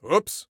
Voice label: Ooops, Soldier Male